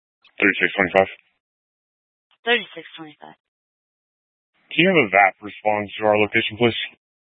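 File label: Police Dispatch, scanner audio recording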